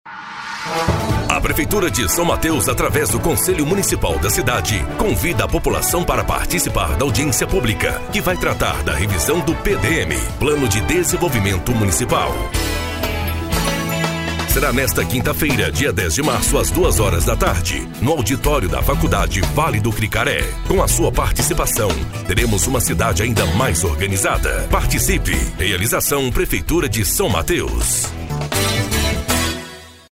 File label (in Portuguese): INFORMATIVO